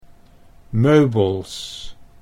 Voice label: "meubels", Dutch

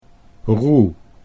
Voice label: "roe", Dutch

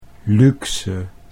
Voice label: "luxe", Dutch

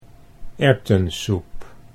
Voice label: "erwtensoep", Dutch

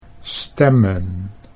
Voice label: "stemmen", Dutch

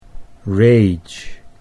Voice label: "rage", Dutch English

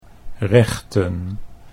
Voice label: "rechten", Dutch

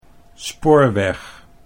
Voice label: "spoorweg", Dutch

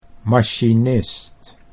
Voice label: "machinist", Dutch

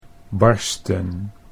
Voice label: "barsten", Dutch

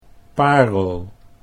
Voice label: "parel", Dutch; pearl

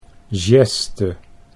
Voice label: "geste", Dutch

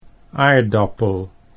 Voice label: "aardappel", Dutch

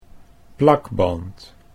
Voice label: "plakband", Dutch

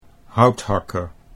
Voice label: "houthakker", Dutch

